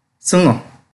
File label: Kelantan-Pattani Malay